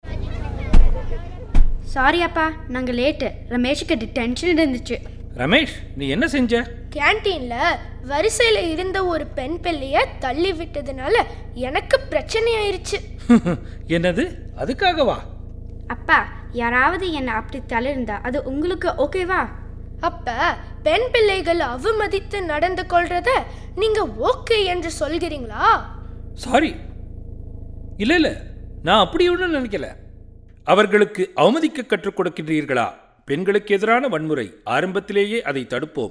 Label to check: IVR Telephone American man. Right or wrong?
Wrong